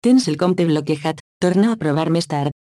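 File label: Account Locked Try Later, Catalan; AXEFIAudio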